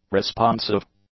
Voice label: "responsive", English; adjectives